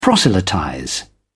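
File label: "proselytize", British English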